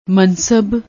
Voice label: "mansab", Punjabi; ਮਨਸਬ

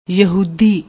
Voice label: "yahudi", Punjabi

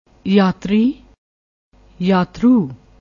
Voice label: "yatri", Punjabi

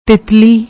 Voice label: "tittali", Punjabi; ਤਿਤਲੀ

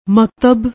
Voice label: "maktab", Punjabi; ਮਕਤਬ

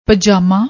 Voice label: "pajama", Punjabi

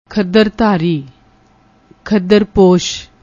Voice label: "khadardhari", Punjabi

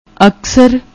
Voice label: "akkssar", Punjabi; ਅਕਸਰ

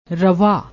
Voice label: "rava", Punjabi

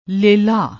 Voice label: "lella", Punjabi

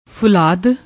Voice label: "fullaad", Punjabi

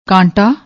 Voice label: "kanta", Punjabi; ਕਾਂਟਾ

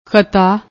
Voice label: "khata", Punjabi; ਖਤਾ

